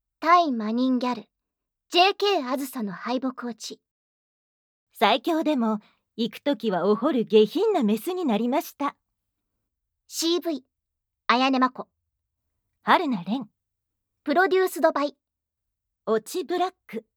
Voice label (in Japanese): タイトルコール, ASMR